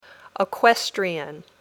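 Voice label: "Equestrian", English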